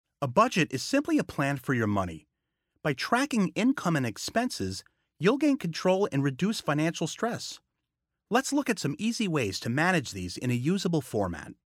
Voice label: E-Learning Budgeting